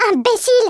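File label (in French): "imbecile", French; worms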